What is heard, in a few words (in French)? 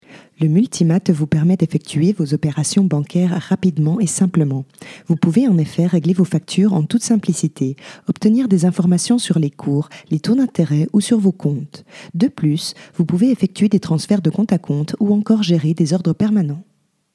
voix off texte publicitaire banque